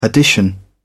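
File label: "addition", English, British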